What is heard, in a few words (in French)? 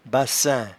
"bassin", patois jura